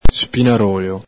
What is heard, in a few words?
"spinarolo", Veneto